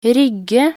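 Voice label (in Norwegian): "rygge", Norwegian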